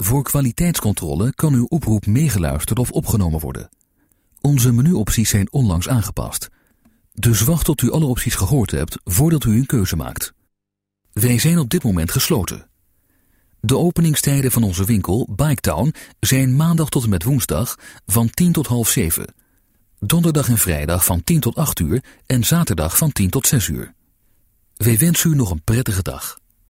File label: dutch ivr